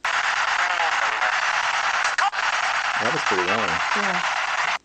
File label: Male Voice